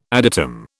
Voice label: "adytum", British